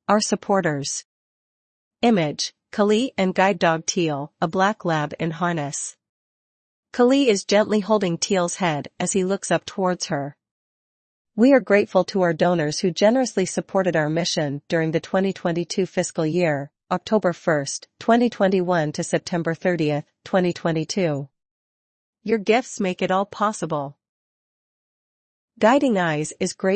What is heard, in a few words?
voiceover